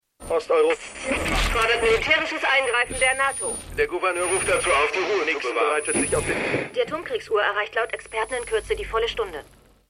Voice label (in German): Radiostimme; Stimmproben; Watchmen